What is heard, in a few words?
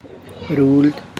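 half, Tlacochahuaya Zapotec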